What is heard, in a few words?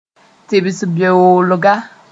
"tɩbs-beoolga", Moore